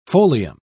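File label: "folium", British English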